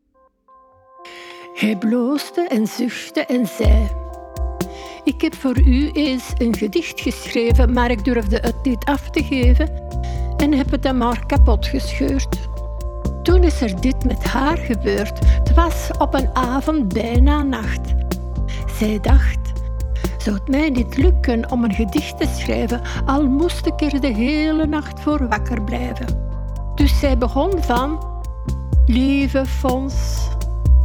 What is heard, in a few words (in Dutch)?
met muziek, Gedichten met geluid; Time verdrive; moeke